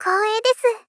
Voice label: Polite Praised Koei desu